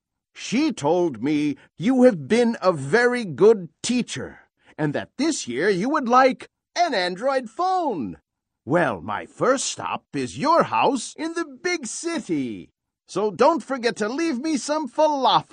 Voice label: a message from santa